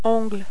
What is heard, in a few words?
"ongle", French